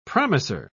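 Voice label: "promiser", British English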